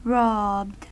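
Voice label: "robbed", American English; pronunciations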